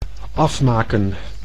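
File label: "afmaken", Dutch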